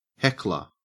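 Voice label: "heckler", Australian English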